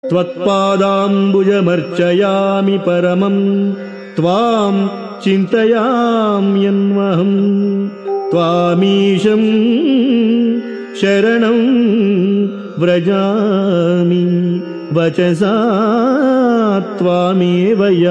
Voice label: Sanskrit; Sri Adi Sankaracharyas Sivananda Lahari; Adi Shankaracharya; श्रीशिवानन्दलहरी २९